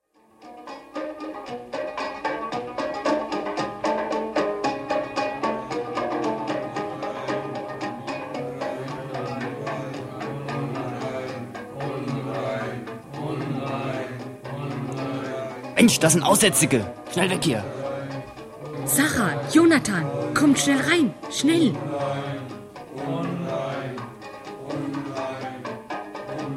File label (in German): Biblisches Hoerspiel; Nur einer sagte Danke